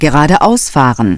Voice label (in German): "geradeaus fahren", Navigation; DISTEFORA Navigation; Mobile Navigator Notebook